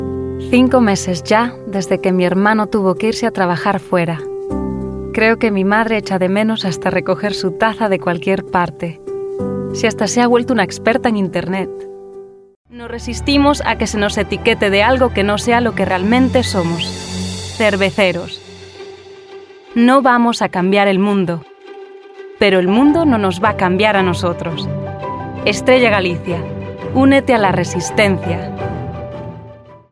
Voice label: Spanish